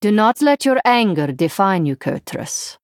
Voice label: Female Draenei